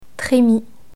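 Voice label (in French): "tremie", French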